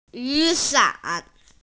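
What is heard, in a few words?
"umbrella", Mandarin; yu3san3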